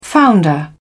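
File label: "founder", English, British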